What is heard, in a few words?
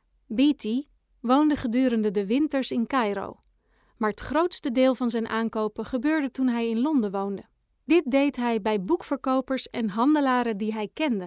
dutch audiobooks